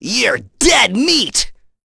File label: Vox Skill, en; kingsraid